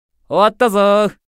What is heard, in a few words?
job notification voice; Mammon